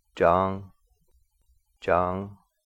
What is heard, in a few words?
"jaang", here, Cahto; "j" in "John"